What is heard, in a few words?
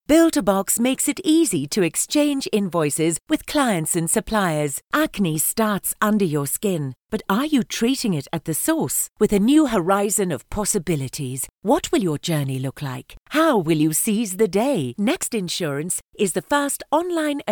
young adult enthusiastic British